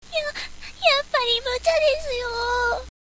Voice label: girl